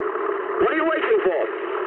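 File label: English attack position mood med; War Thunder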